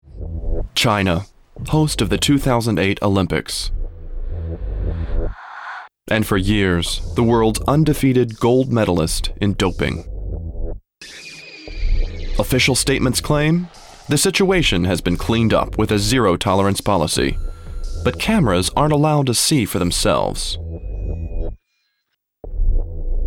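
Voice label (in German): British Erklärfilm, englisch; sprecher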